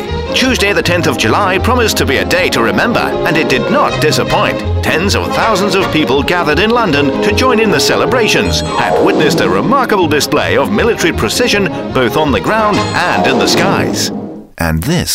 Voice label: British

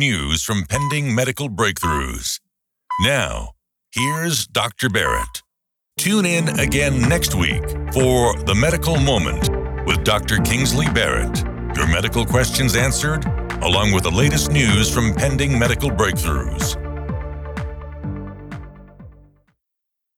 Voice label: PodCast Intro extro Image

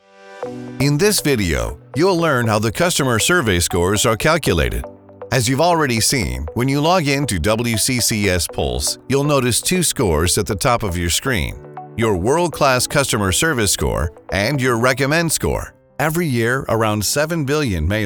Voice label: Narration

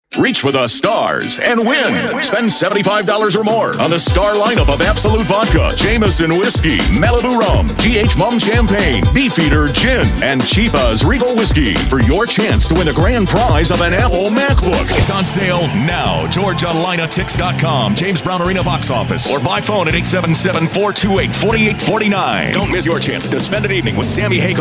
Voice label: Commercials

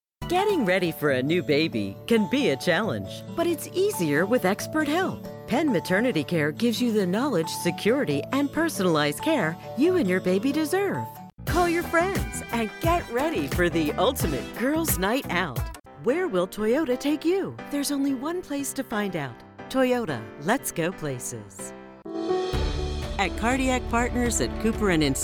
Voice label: commercial

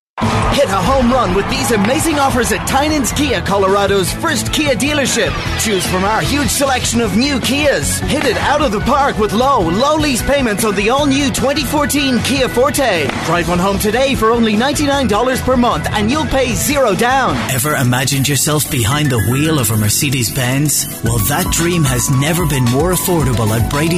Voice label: Car Spots

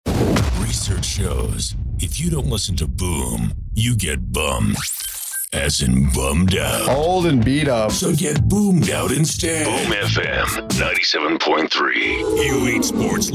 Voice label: Radio TV Imaging

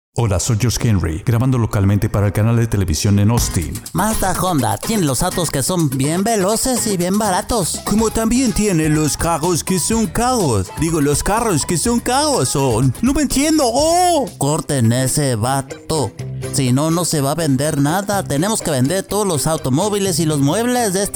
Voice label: Character

